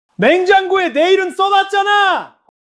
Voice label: anger